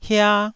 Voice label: "hia1", taiwanese

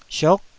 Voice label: "siuk9", Taiwanese syllable

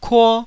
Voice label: "kuo1", Taiwanese syllable

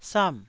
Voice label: "sam4", taiwanese syllable

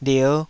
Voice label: "lier5", Taiwanese

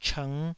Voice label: "chng5", taiwanese

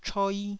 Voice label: "choi1", taiwanese

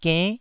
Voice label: "genn9", Taiwanese syllable

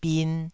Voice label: "bin2", taiwanese

